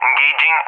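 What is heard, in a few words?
"engaging", English speech; Allied Flight; DCS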